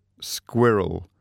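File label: "squirrel", English word; animals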